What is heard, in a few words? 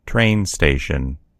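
"train station", American English; gcompris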